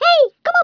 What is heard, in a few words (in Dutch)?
"Come on then", Dutch; CyberMycha Extra